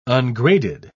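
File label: "ungraded", British English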